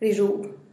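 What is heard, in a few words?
"rizhub", Teotitlán del Valle Zapotec; swim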